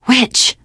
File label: warn witch, teengirl; voice; source engine; left 4 dead 2; survivor